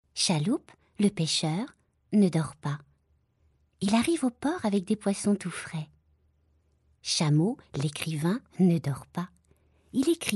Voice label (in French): Conte enfant